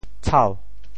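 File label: "tshau2", Teochew; 潮州; 大蓬草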